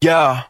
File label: "yo", voice